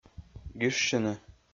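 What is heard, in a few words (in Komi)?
"гижсьыны", Komi, male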